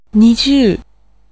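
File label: Japanese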